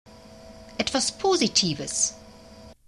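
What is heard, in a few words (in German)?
"etwas positives", German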